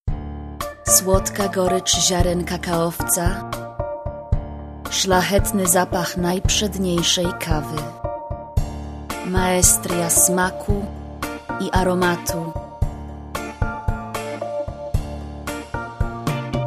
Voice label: Polish, Eastern European, Female, 20s-20s